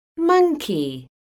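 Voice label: "MONKEY", English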